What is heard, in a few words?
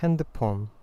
cellphone, korean